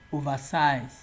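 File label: "oversize", Nigerian Pidgin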